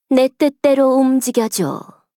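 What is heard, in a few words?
voice, Korean; Eve; Ultimate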